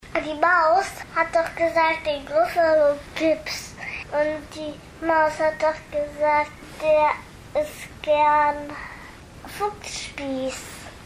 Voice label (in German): 5 Jahre, Tonbeispiel, Sprache